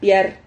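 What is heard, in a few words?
"piar", Spanish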